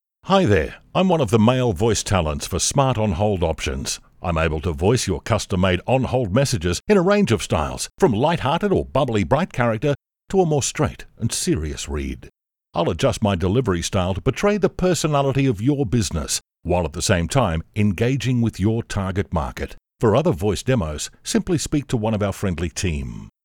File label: MALE; Voice Over artists; Smart On Hold Messages